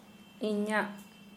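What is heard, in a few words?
my, Santali, female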